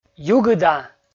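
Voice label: "югыда", Komi, male; kpv audio dict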